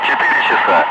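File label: Russian speech, Ka-50 Wingman; DCS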